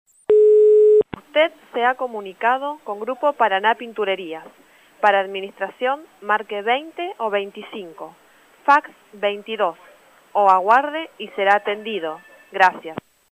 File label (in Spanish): mensajes telefonicos